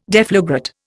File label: "deflagrate", Indian